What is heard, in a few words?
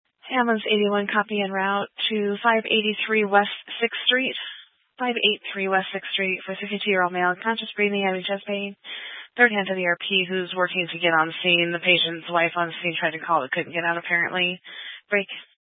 Fire Dispatch, Scanner audio recording